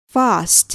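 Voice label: "fast", English word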